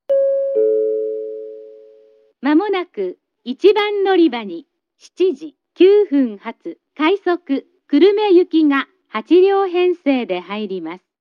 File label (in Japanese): announce; kurume; jrkyushu; moji st